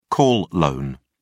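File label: "call loan", British English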